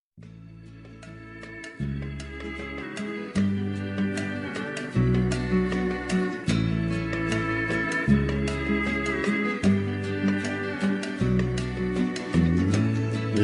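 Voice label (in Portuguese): enteado fem, Telemensagens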